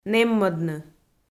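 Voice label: "нэммӧдны", Komi, female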